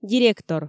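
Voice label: "директор", Komi, female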